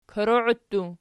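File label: "koroii" plural, Archi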